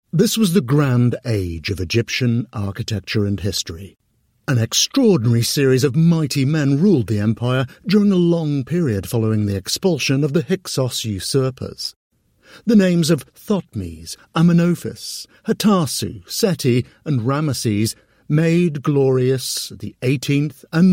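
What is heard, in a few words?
Educational, British Male Voice Over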